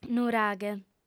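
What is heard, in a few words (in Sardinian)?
"nuraghe", female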